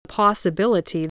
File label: "possibility", English